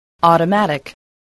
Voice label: "automatic", english

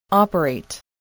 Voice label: "operate", voice, spelling

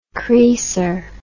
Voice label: "creaser", English

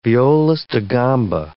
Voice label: "violist da gamba", English

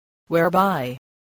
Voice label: "whereby", spelling

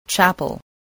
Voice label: "chapel", English, voice

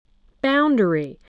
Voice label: "boundary", spelling

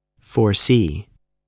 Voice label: "foresee", English voice, spelling

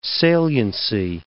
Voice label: "saliency", English

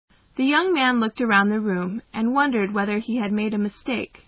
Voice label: M

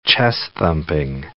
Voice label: "chest-thumping", English

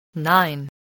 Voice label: "nine", voice

